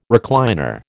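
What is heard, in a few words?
"recliner", American English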